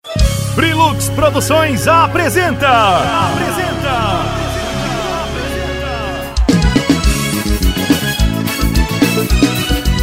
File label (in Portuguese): spot